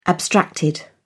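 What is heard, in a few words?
"abstracted", British English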